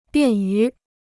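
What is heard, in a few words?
"便于", Chinese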